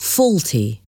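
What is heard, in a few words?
"faulty", English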